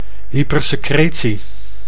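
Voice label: "hypersecretie", Dutch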